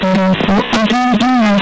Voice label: "vero", italia; vero, può aderì là!

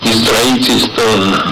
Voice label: "entrai", italia; entrai, ci sta

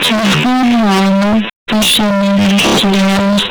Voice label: "dischi", italia; Dischi giù ha, neh, qui scenne lì a insegnare.

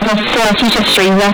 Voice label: "basta", italia; Basta, qui soffriva…

